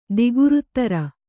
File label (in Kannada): "ದಿಗುರುತ್ತರ", Kannada